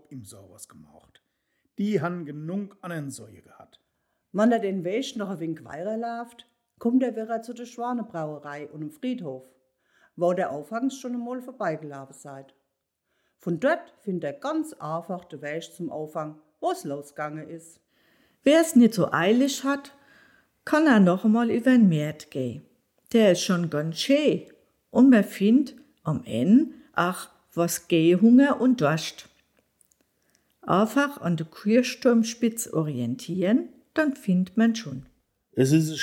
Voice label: Mundart Verabschiedung

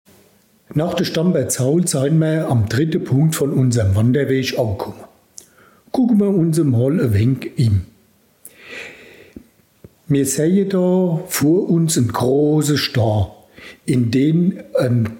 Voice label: Mundart; Traubenstein

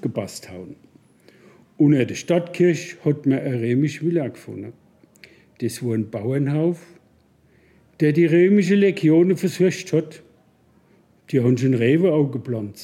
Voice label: Mundart Weinbau Geschichte

